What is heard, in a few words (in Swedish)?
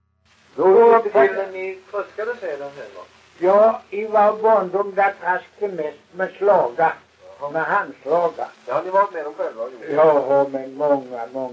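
Kristianopel, Swedish dialect; svenska sagor; Folkminnen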